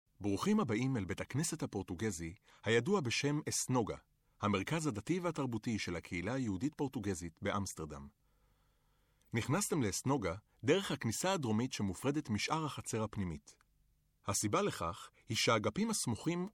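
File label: Israeli, male; Professionelle Sprecher und Sprecherinnen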